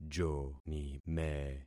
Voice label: "jonime", concat syllables; Affixing experiment